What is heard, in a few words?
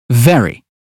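"very", British phonetics; 英语音标发音（剑桥版）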